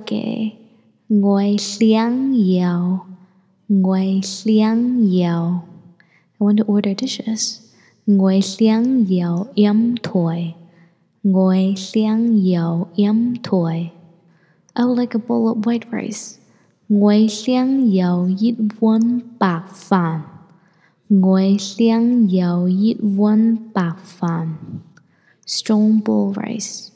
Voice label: food ordering in taishanese